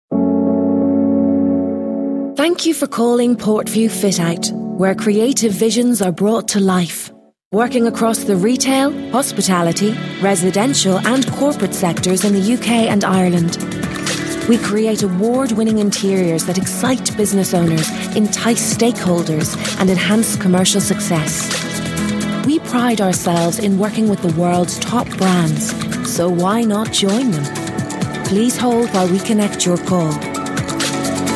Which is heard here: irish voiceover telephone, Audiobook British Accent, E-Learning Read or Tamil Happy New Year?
irish voiceover telephone